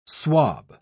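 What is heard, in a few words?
"swob", British English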